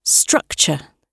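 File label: "structure" British English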